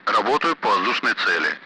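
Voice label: Speech Sound Russian Common Allied Flight Messages; engaging bandit; DCS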